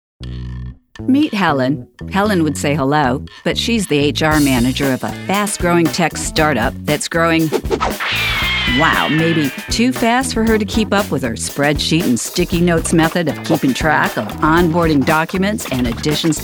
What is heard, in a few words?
Explainer